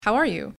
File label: How are you English